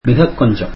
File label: tamil Just a little